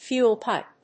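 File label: "fuel pipe", English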